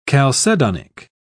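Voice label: "chalcedonic", British English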